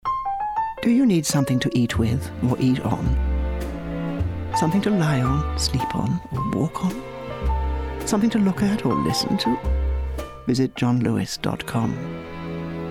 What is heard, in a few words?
commercial reel